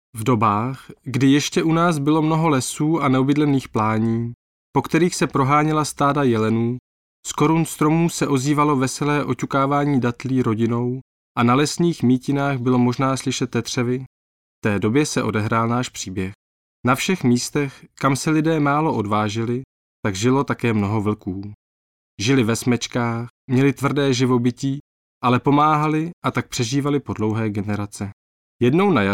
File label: czech m narration